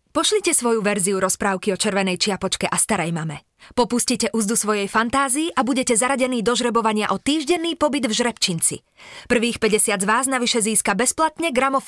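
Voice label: slovak female commercial; voice demos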